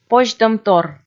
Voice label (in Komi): "позьтӧмтор", Komi